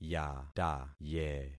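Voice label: "yataye", concatenated syllables; Affixing experiment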